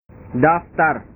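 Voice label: "daftar", Indonesian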